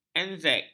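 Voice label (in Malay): "anzac", Malay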